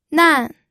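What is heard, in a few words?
"nan", fourth tone, pinyin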